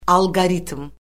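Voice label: "alqoritm", Azerbaijani; Orfoqrafiya Lüğəti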